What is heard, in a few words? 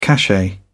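"cachet", British English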